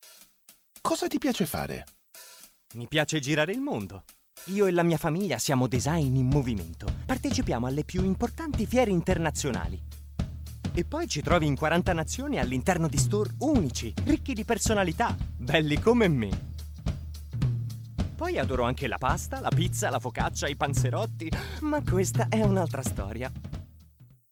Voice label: italiano voiceover; ego